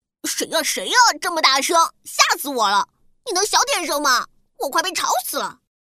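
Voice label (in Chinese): 女 儿童配音【男童】; 可爱; 垂耳兔弟弟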